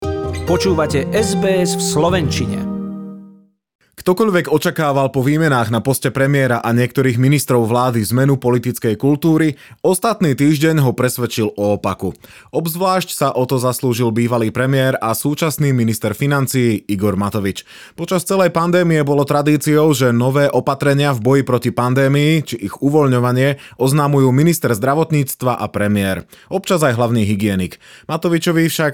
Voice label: slovak; sbs

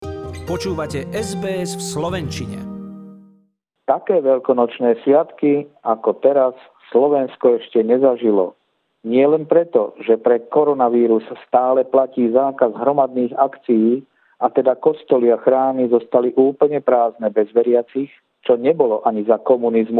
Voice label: slovak; sbs

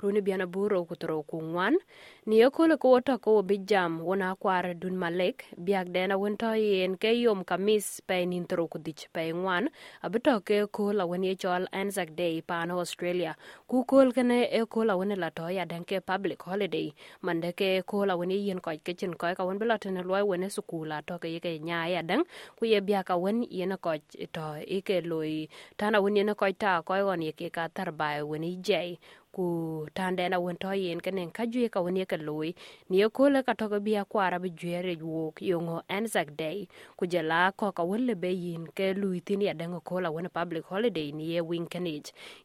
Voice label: dinka, podcast; sbs

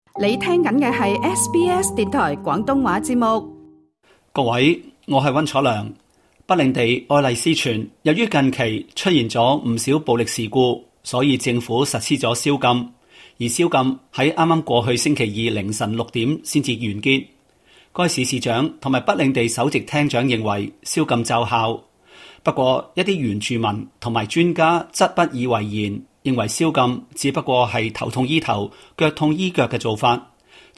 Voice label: cantonese; sbs

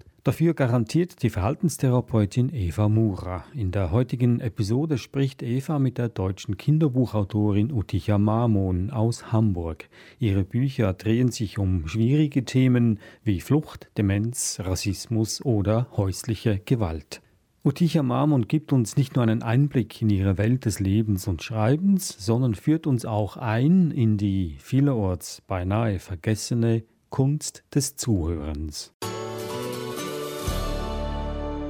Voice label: german podcast

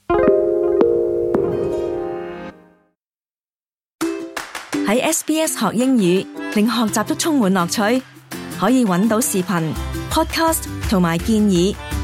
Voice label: cantonese; sbs

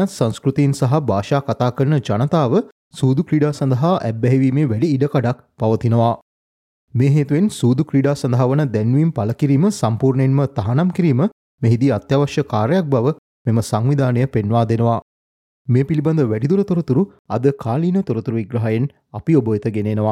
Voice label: sinhala explainer gambling multicultural; sbs

